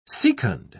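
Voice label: "secund", British English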